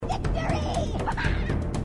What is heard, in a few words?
victory haha, grunts dialogue